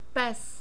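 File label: "pes", czech, male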